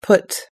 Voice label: "put", us-english